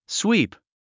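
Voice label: "sweep", English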